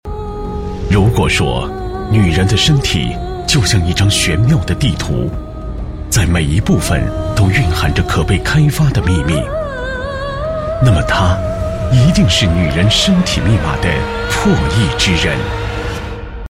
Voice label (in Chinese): 男25号 广告 美容业宣传, 国语男声配音